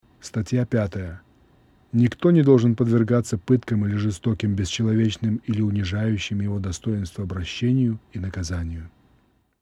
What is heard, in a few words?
Russian